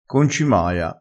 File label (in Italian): "concimaia", crespinese